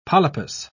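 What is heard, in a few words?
"polypus", British English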